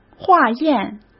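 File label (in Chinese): "化验", Mandarin Chinese; hsk; chinese flashcards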